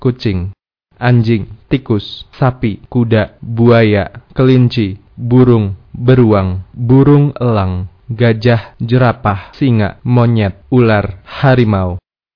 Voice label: indonesian animals